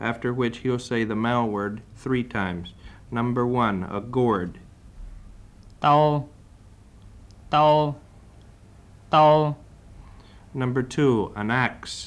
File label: WHITE MIAO